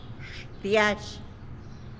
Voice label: "xtiazh" garlic; tlacolula